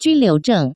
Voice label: "juliu", Taiwan Chinese